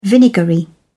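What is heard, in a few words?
"vinegary", English, British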